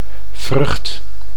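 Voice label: "vrucht", Dutch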